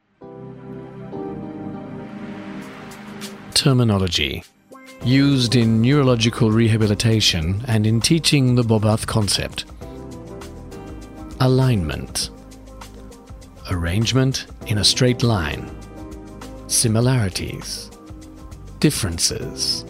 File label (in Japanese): VP ボイス